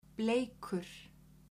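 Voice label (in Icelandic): "bleikur", Icelandic, female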